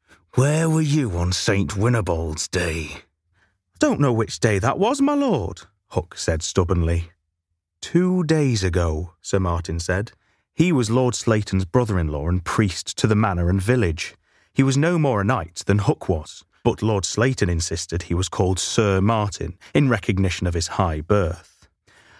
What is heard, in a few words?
Audiobook